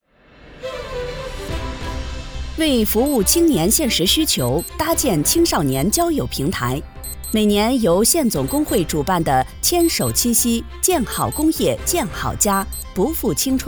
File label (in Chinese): 新闻新闻播报